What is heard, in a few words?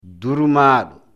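"durmaado", Fula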